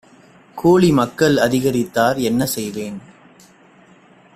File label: tamil audio